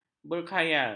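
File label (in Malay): "berkhayal", Malay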